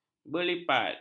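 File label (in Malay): "berlipat", Malay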